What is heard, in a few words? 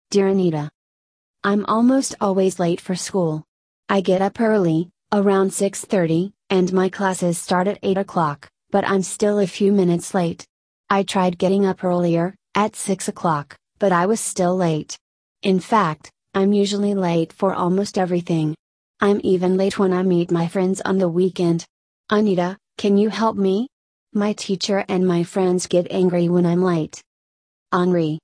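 American; advice time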